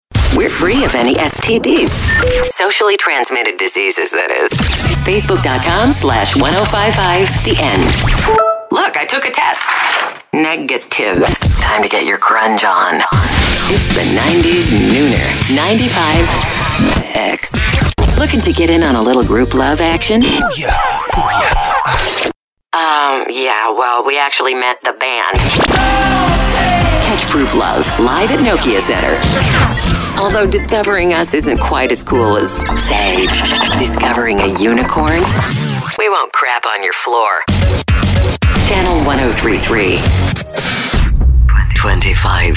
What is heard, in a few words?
Rock Imaging; radio